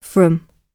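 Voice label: "from", British English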